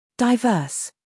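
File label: "scandal", American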